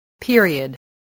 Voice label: "period", English, voice